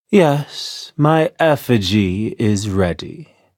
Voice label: touch, en; cookie run

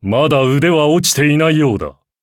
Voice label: victory, ja; Cookie Run

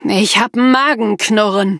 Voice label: defeat, de; cookie run

